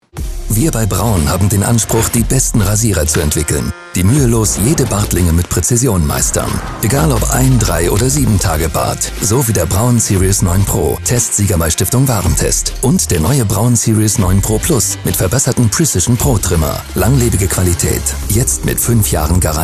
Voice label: DE TVC; WERBUNG; Braun

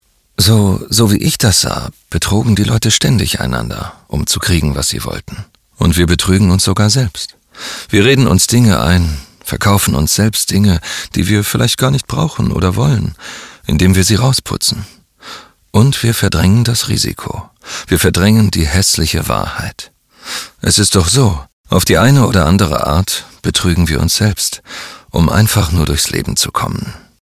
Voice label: DE Synchron Nachdenklich; NARRATIVE / RADIO PLAY / AUDIOBOOK; Thought Voice

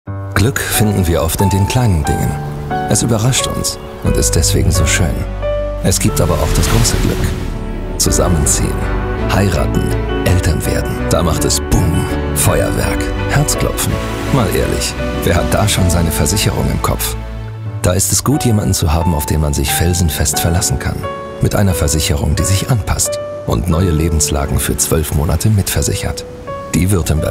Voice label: DE Werbung TVC; Wuerttembergische

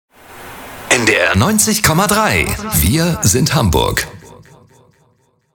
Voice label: DE Station Voice, Voiceover; NDR 90,3

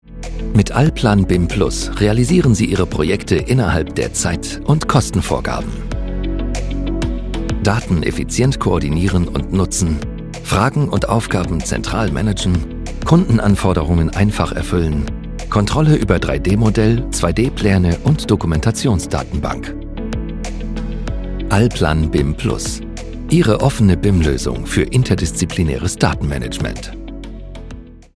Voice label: DE Erklaerfilm; EXPLANATORY FILM; Allplan

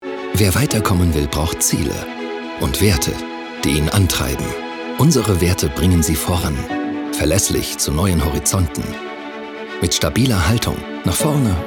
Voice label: DE Imagefilm; Helaba

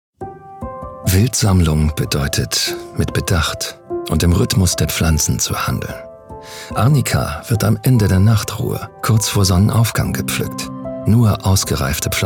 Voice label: Doku, DE; DOCUMENTATION; Hauschka; german voice actor

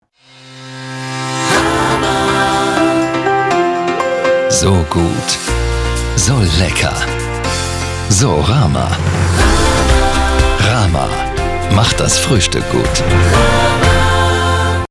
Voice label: Werbung TVC, DE; Rama

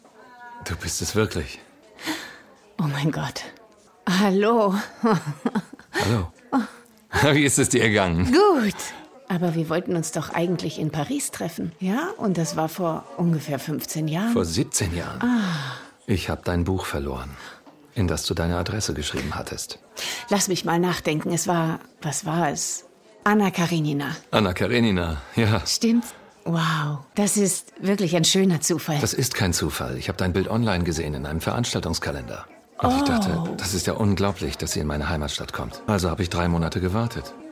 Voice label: Synchron, DE

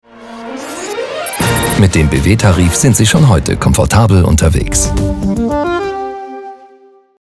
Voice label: DE Werbung Off; bwTarif

